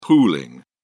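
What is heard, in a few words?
"pooling", British English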